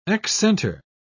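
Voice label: "excenter", British English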